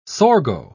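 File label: "sorgo", British English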